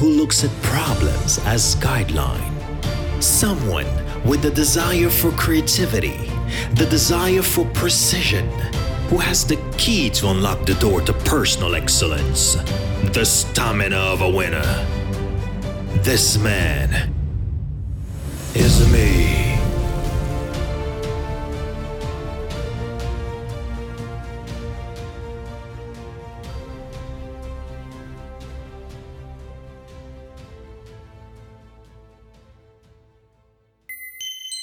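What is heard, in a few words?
Commercial voice over; demo voix off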